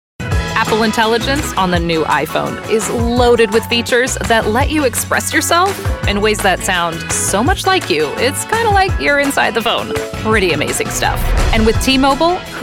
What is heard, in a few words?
Commercials